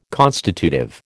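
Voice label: "constitutive", American English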